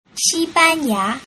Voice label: "xibanya", Mandarin